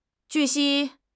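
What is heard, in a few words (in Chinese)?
"据悉", Chinese; HSK; HSK6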